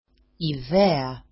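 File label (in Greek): "ithea", Greek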